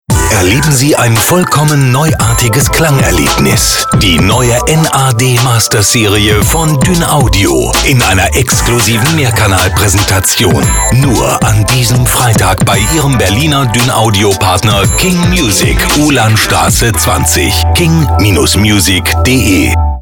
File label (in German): Consumer Electronics Radiowerbung